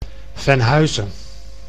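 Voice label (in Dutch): "Venhuizen", Dutch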